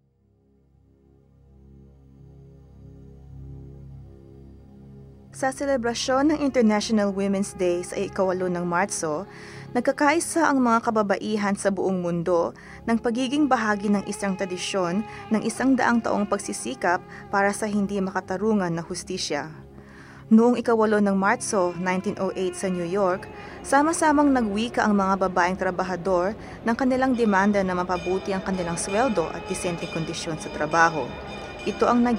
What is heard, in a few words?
Filipino